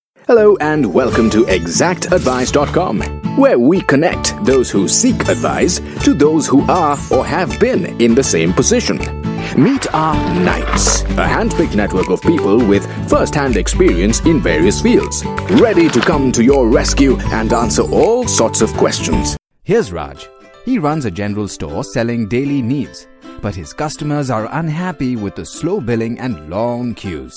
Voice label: EXPLAINER VIDEOS ENGLISH